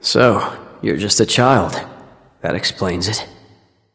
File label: taunt directed; nezha; smite; loki